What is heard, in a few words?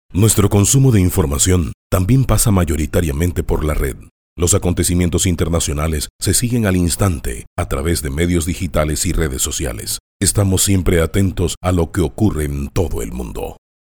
locutor panama panamanian voiceover; locutor de Panamá